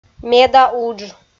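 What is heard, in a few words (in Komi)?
"меда удж", Komi, female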